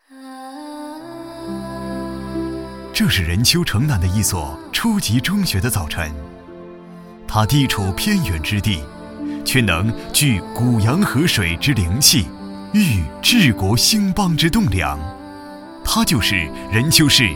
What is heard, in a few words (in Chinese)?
男 专题, 国语男声配音; 北汉中学